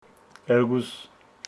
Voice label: Homshetsi two